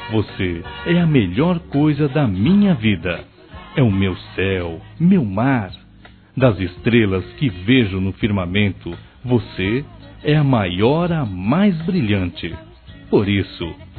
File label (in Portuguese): Desculpas Aniversário Atrasado, Masc; Telemensagens 2017